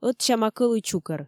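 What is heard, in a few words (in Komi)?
ӧтсяма кӧлуй чукӧр, Komi, female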